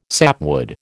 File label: "sapwood", American